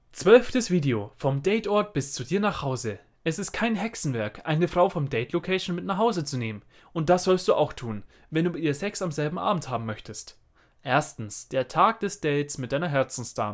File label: GERMAN